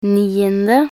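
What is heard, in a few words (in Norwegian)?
"niende", Norwegian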